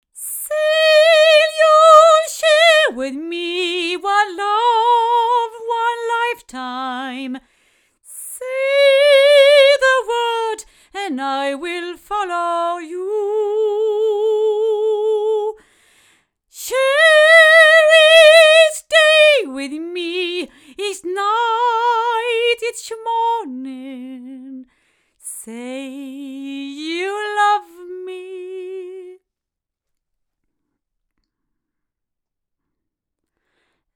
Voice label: MXL 603S female

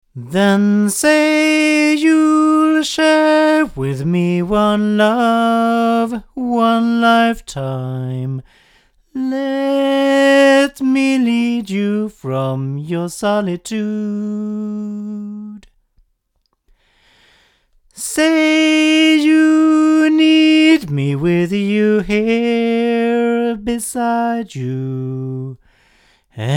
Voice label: ADK A51ST male

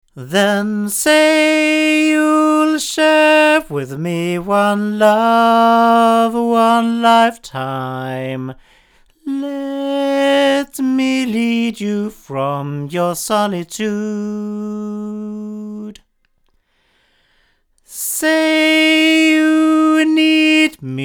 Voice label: Avant CK-7 cardioid male

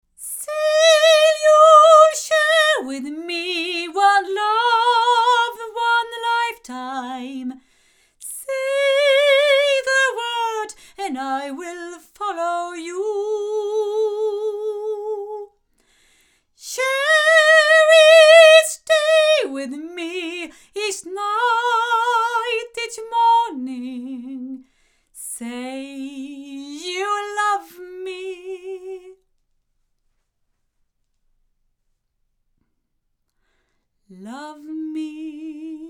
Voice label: sE 2A omni female; se electronics

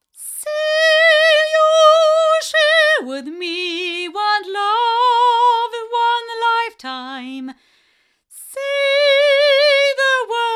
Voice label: female, microphones, Milab D-37

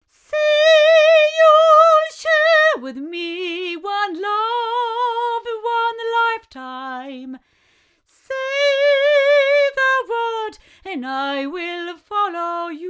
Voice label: CR-14 female; Avant

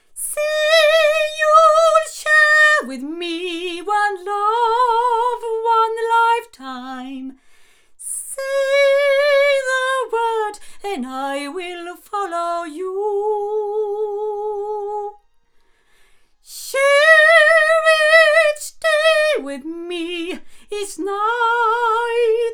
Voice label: Avantone BV-1 omni female

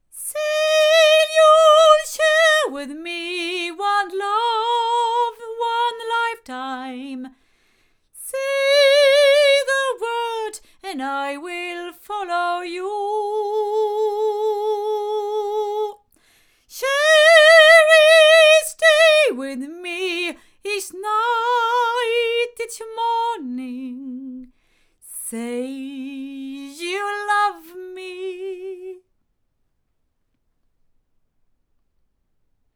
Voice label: sE Icis female, microphones; se electronics

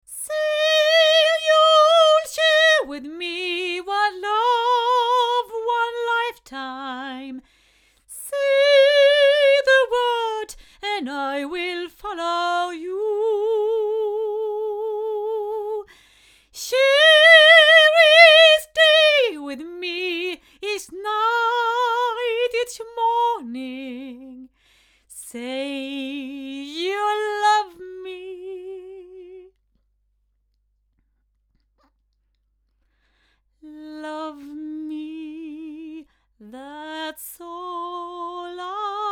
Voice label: 8 female; Studio Projects LSD2

